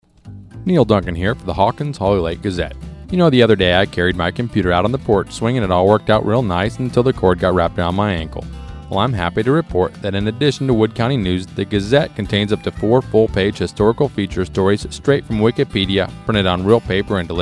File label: commercial; hlrgazette